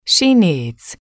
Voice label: "she needs", English

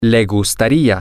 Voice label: he would like, spanish, M

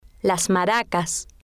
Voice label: "las maracas", spanish

